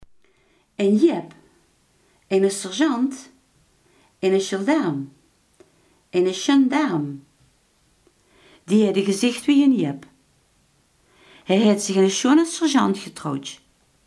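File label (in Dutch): iemand die bazig is, Limburgse gesproken woordenboeken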